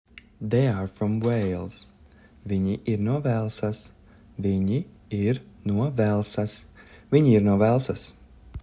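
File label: They are from Wales, Latvian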